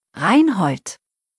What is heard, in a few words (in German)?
"Reinhold", deutsch, jungennamen